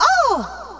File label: rank down girl; Nintendo